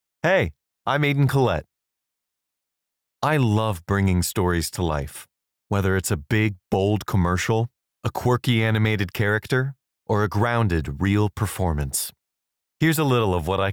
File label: vo demo reel